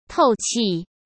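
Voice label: "透气", Mandarin Chinese; hsk; chinese-flashcards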